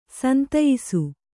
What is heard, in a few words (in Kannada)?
"ಸಂತಯ್ಸು", Kannada